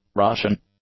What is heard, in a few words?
"Russian", english; adjectives